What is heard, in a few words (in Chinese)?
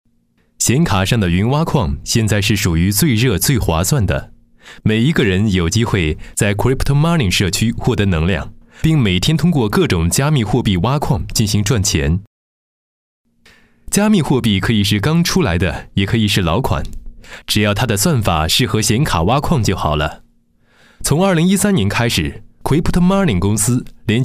男 专题 显卡挖矿解说